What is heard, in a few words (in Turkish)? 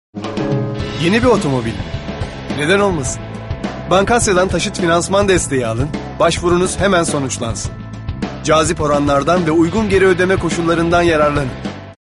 kampanya, seslendirme